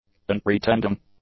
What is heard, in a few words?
"unpretending", english; adjectives